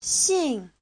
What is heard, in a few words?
"xin4", Chinese